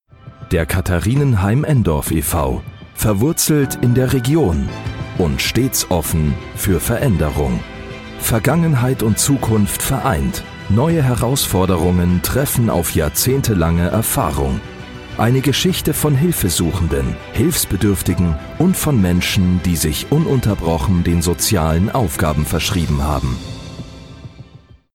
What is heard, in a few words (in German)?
dokumentation; katharinenheim endorf e. v.